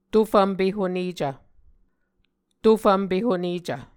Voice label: "to fvmpe honecv", Muscogee